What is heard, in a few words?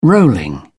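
"rolling", English, British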